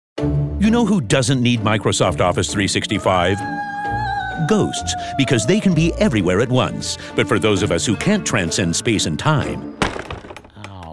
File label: Commercial; Commercial Demo